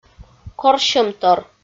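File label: "корсьӧмтор", Komi, female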